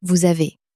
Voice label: vous avez, common french words

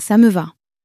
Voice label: ça me va, common french words

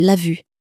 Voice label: "vue", French

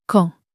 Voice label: "quand", French

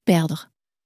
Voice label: "perdre", French

